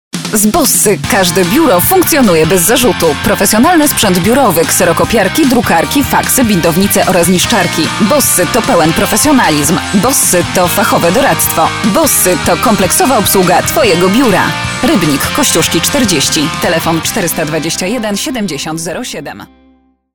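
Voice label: Polish, female, commercial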